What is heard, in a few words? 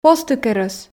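"позтыкерӧс", Komi, female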